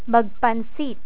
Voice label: "magpansit", Tagalog

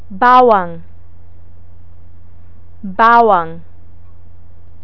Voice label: "bawang", Tagalog

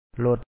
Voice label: "lo1ot", Lao; a car

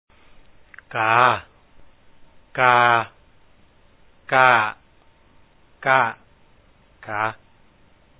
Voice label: ka, ກາ / kaa ກ່າ ກ້າ ກ໊າ ກ໋າ, Lao; Reading Exercise; pronunciation

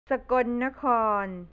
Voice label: "sakonnakhon", Thai; สกลนคร; northeast; แผนที่ประเทศไทย

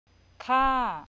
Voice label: "kha", thai, tones; Low/long vowels; Bangkok Thai

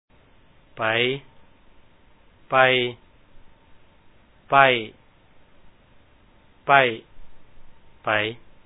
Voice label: pay, ໄປ/ pay ໄປ່ ໄປ້ ໄປ໊ ໄປ໋, Lao; Reading Exercise

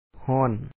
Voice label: hO1On hot, warm, Lao; ຮ້ອນ

